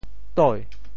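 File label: "toi2", Teochew; 底; 潮州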